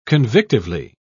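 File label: "convictively", British English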